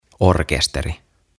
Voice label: "Orkesteri" "Band"; OTAVA KONSERNI

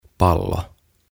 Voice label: "Pallo" Ball; OTAVA KONSERNI

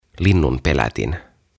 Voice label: "Linnunpelätin", "Scarecrow"

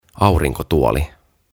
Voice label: "Aurinkotuoli", "Deck Chair"; OTAVA KONSERNI

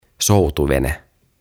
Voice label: "Soutuvene", "Rowing Boat"; OTAVA KONSERNI

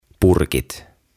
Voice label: "Purkit", "Jars"

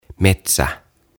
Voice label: "Metsä", "Forest"

